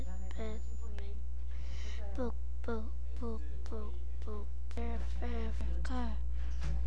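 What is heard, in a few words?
"book", spoken word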